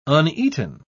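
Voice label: "uneaten", British English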